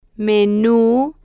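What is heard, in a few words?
"menu", Spanish, female, slow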